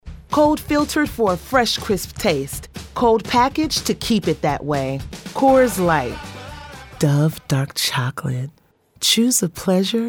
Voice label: Commercial